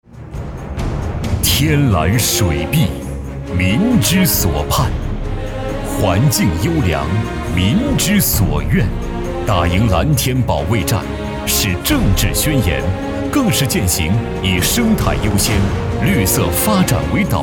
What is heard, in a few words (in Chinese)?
男 大气沉稳 政府专题片